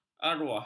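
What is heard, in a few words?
"arwah", Malay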